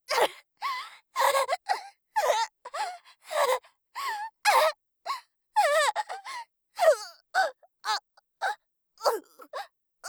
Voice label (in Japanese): ワンツー BPM110, 効果音なし